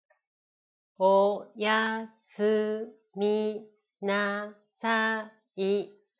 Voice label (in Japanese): ナレーション